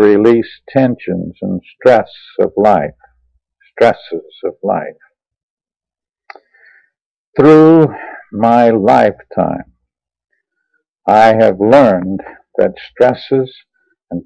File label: message before relaxing prayer